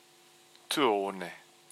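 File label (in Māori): "tuaone", Māori word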